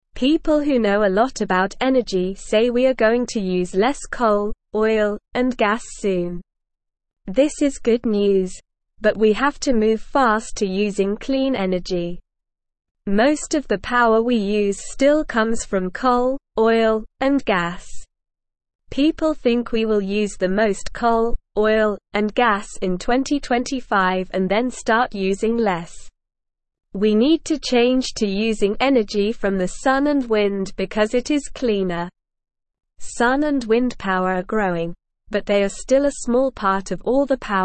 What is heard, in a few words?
English Beginner SLOW Reading; English Newsroom